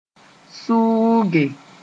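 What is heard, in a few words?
"sʋʋge", Mooré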